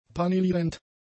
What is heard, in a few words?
"paneelierend", German; adjectives